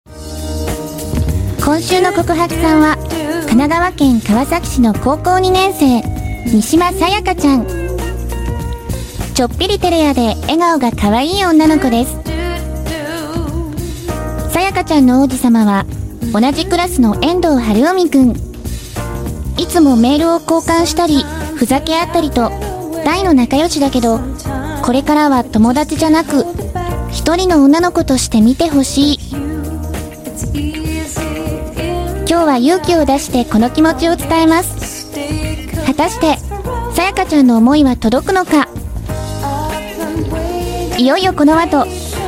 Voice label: Japanese Female Entertainment

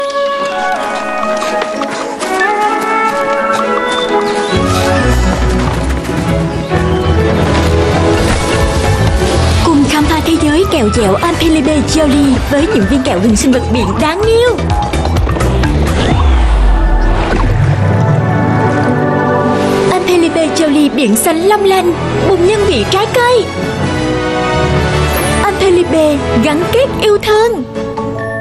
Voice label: South TVC Female, Vietnamese; Alpenliebe

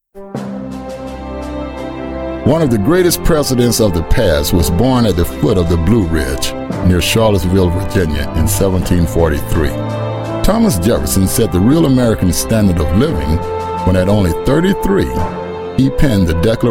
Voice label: US English Narration

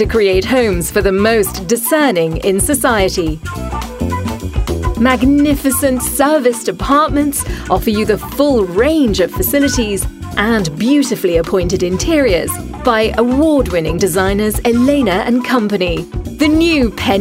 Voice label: UK English London Property

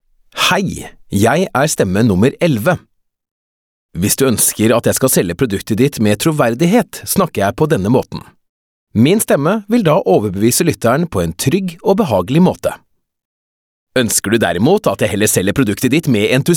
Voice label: Norwegian, Male

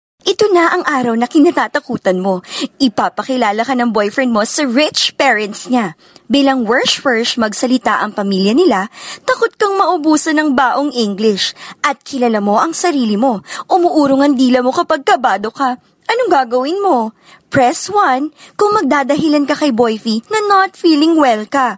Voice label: Female Tagalog IVRS Voice Sample

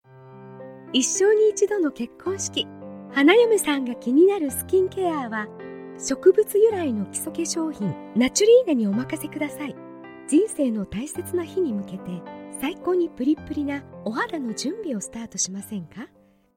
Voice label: Japanese Cosmetic

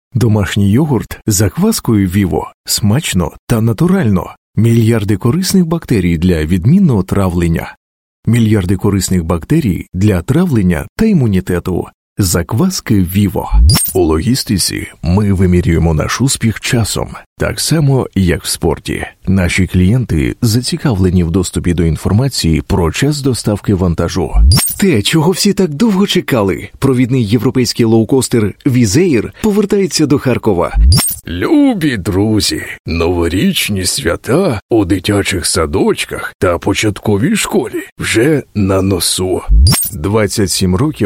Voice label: Ukrainian Voice, Male

